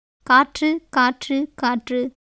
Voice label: Tamil

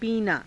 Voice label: "piinaa", Hindi

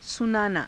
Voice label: "sunaanaa", hindi

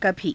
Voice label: "kabhii", Hindi; कभी; [副]かつて，いつか

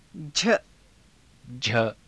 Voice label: "jha", Hindi

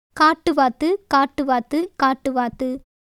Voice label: Tamil

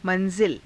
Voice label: "ma^zila", Hindi

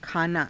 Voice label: "khaanaa", Hindi

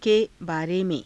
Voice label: ke baare me^, Hindi

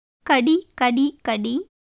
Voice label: Tamil

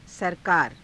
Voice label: "sarakaara", hindi

